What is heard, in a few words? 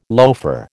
"loafer", American